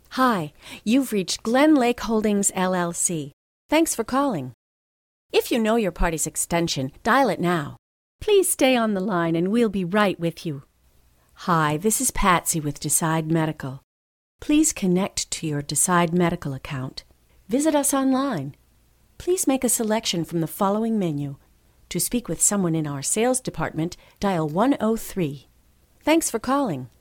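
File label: American IVR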